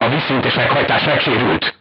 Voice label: voice message horizontal aiming drive damaged, hungarian; crew tanks